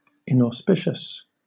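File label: "inauspicious", British English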